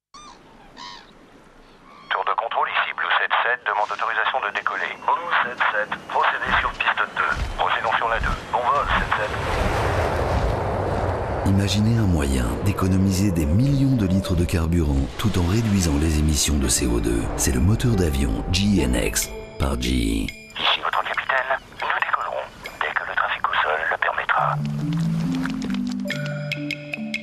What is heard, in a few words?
spot TV; GE Engines Grue